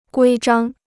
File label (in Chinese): "规章", Chinese